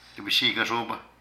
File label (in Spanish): Una jicara de maíz, Zapoteco de Petapa; Cantidad; Vocabulary